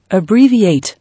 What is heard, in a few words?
"Abbreviate", English